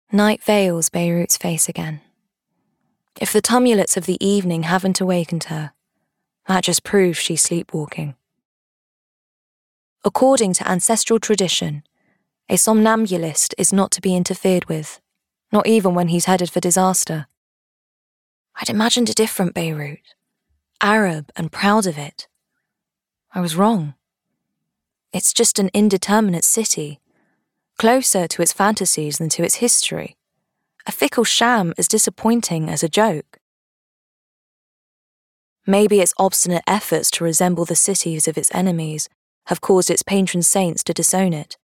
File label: Audiobook